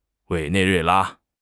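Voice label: "Wei3 nei4 rui4 la1", Chinese; HSK; HSK1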